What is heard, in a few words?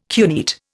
"cuneate", Indian